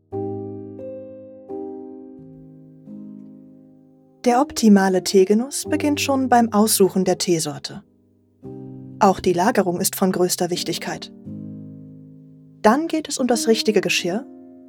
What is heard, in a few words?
eLearning Tee